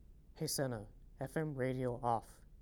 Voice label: FM Radio Off; 50series